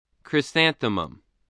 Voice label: "chrysanthemum", English, voice

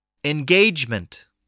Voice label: "engagement", spelling

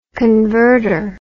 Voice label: "convertor", English

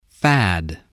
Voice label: "fad", voice

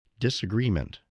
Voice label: "disagreement", english spelling

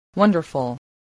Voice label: "wonderful", voice, english

